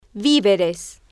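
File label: "vi-ve-res", Spanish, female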